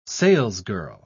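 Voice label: "salesgirl", British English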